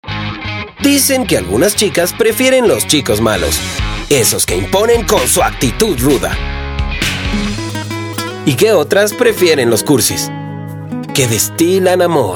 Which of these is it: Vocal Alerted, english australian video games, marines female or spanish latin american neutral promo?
spanish latin american neutral promo